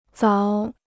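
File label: "凿", Chinese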